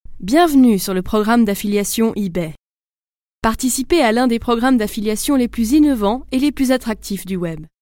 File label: French